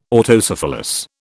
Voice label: "Autocephalous", British

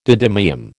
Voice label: "didymium", American

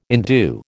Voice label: "indue", American

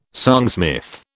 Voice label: "songsmith", British

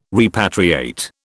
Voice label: "repatriate", British

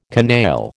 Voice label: "canaille", American

